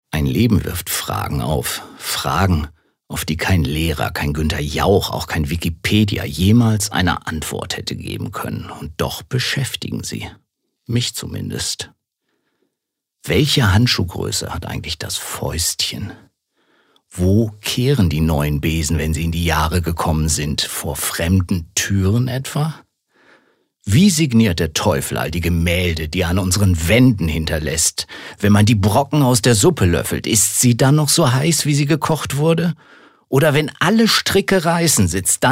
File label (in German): Schauspiel Kraftvoll